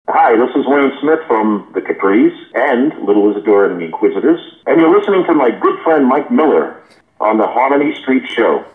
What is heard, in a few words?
promo